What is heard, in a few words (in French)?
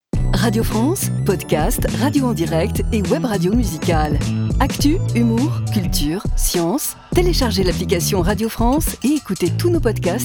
appli podcast promo